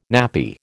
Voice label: "nappy", American